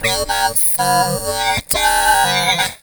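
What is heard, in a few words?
effects talk, Funky Vocals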